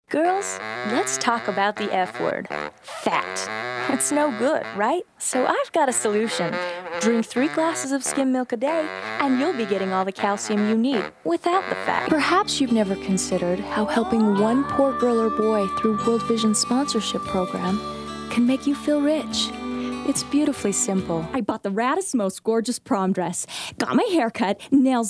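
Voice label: commercial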